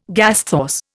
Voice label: "gasthaus", Indian

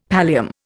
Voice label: "pallium", Indian; Dictionary

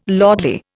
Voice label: "lordly", Indian

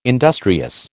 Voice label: "industrious", American

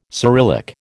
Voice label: "Cyrillic", American

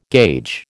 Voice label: "gauge", American

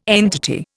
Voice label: "entity", Indian

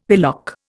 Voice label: "pillock", Indian; Dictionary

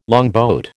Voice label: "longboat", American

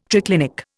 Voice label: "triclinic", Indian

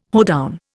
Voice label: "hoedown", Indian

